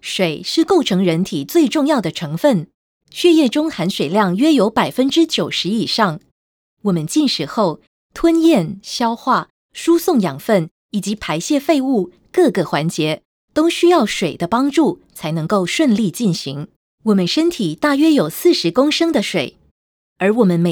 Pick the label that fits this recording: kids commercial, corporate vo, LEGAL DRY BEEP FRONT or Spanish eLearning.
corporate vo